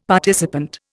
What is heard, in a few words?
"participant", Indian; Dictionary